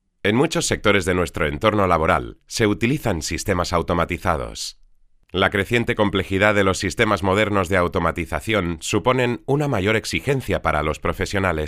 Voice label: Corporativo Spanish

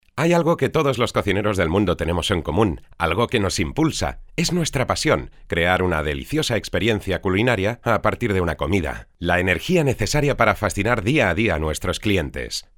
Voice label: Conversacion Spanish castilian VO

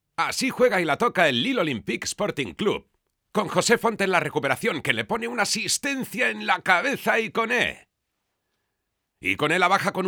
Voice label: Football Speaker Spanish VO

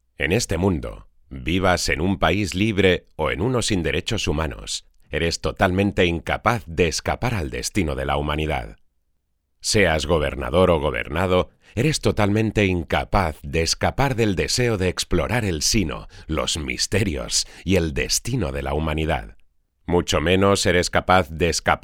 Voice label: Narracion AUDIOLIBRO Spanish VO

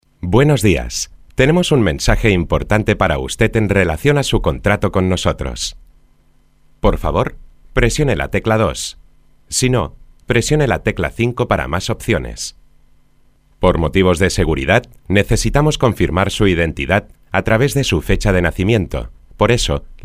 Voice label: Contestador Spanish; TTS Reader Text to speach

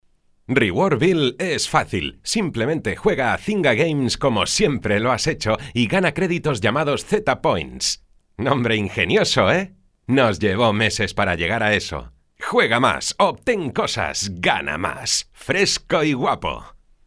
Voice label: SPOT Internet Spanish castilian VO

